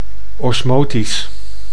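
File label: "osmotisch", Dutch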